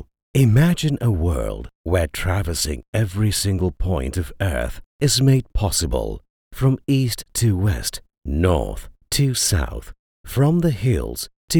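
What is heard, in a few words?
Malay, Acc EN; GoLocalise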